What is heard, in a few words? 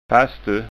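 "fasstu", Scanian dialect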